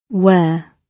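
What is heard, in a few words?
"where", British English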